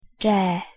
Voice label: "trà", Vietnamees; thee-in-andere-talen

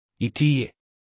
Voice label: "itíye", Zoeloe; thee-in-andere-talen

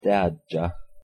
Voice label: "deadja", Samisch Noord; thee-in-andere-talen

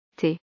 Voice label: "té", Frans-Guyaans Creools; thee-in-andere-talen

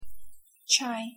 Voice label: "ჩაი" Georgisch; thee-in-andere-talen

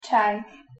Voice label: "čaj", Slowaaks; thee-in-andere-talen

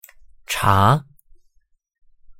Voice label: "茶", Peking; thee-in-andere-talen

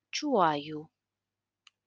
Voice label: "čäijy", Karelisch; thee-in-andere-talen

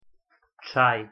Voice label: "чај", Servo-Kroatisch; thee-in-andere-talen

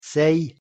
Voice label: "сәй", Basjkiers; thee-in-andere-talen

